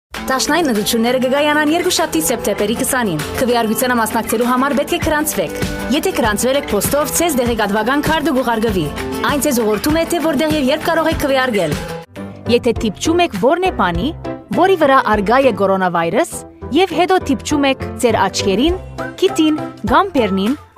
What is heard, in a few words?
Commercial Armenian